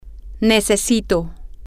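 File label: I need, Spanish, female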